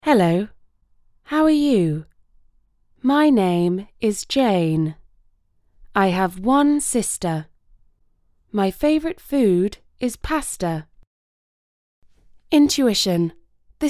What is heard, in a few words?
Educational